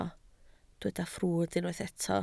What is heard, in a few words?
"ffrwd", Welsh